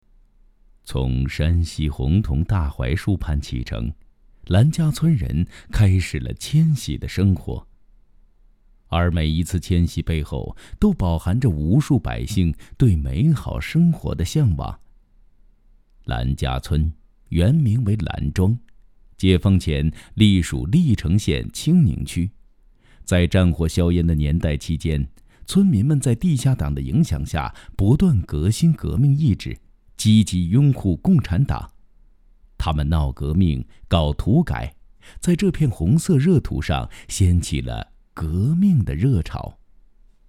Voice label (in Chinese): 男,讲述类专题; 兰家村